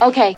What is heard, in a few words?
"okay", expletives